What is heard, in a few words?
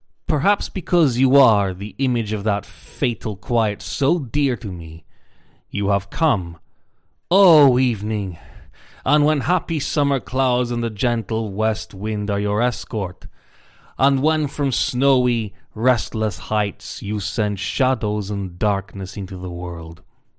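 Italian, English accent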